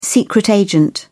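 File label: "secret agent", British English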